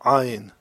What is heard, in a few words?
"ayin", hebrew